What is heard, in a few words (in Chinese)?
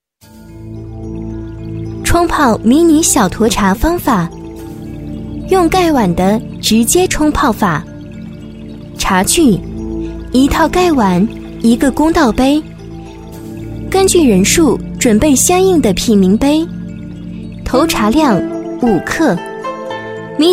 女 解说 (青春); 冲泡迷你小沱茶